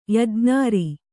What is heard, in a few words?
"ಯಜ್ಞಾರಿ", Kannada; yajñāri; noun; Śiva.